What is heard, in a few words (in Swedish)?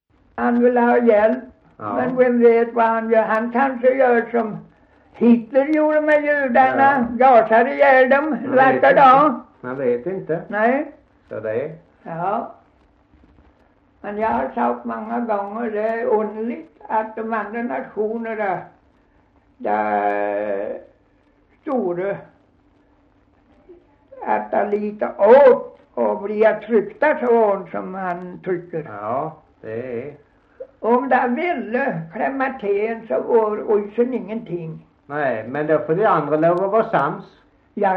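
Gunnarp Halland, Svenska sagor, dialekter; Folkminnen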